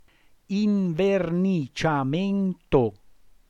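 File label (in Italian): "inverniciamento" Italian word, voce; invernitʃa'mento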